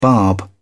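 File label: "barb", British English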